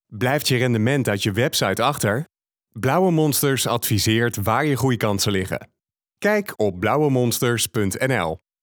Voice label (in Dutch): Radio; lauwe Monsters